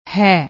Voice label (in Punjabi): "hai", Punjabi